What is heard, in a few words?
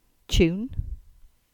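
"Chywoone", English; place-names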